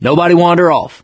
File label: stay together, mechanic